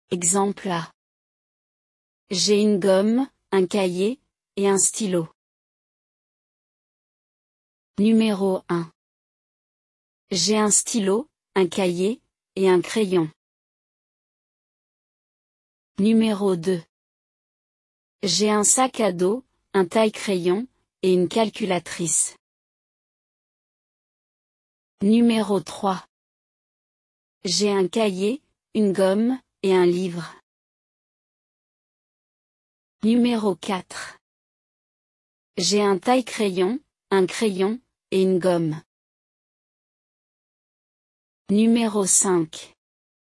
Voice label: fr class objects put in order; Classroom objects - put in order; FRENCH